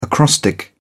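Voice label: "acrostic", British English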